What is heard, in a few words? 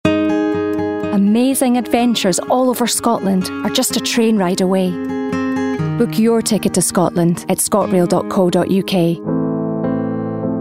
Commercial Voicereel